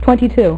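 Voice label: "twenty-two", female; MacCribbage; InterStellar MacCribbage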